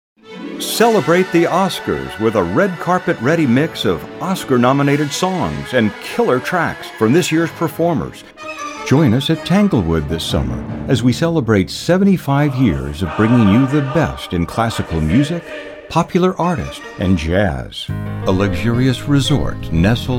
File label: Commercial